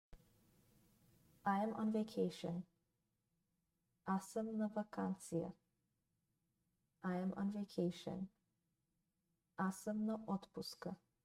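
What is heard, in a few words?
I am on vacation, Bulgarian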